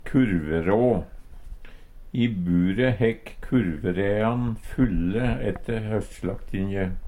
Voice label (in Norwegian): "kurverå", Numedalsmål